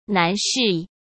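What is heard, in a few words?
"男士", Mandarin Chinese; chinese-flashcards; hsk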